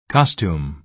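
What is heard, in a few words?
"costume", American English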